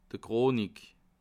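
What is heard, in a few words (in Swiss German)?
"chronik", Bas Rhin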